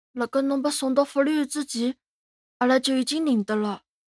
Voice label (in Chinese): 男孩 对话